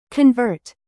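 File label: "produce", verb, British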